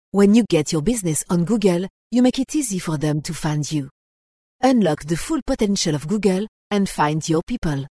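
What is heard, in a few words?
French, female, English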